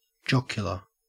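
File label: "jocular", Australian English; pronunciations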